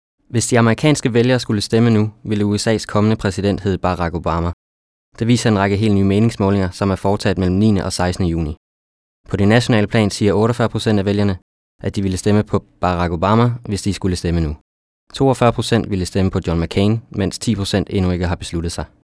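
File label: danish male narration, voice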